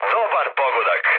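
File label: Serbian bomb success mood med, speech; War Thunder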